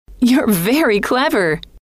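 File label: you Are Very Clever, female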